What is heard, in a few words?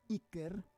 "iker", Roon